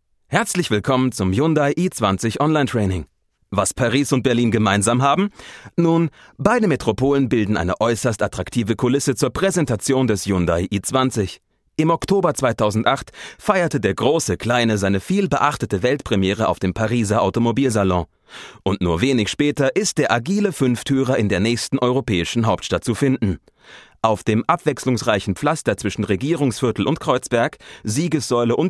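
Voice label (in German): elearning, deutsch männer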